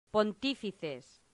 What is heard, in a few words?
"pontifices", latin; santillana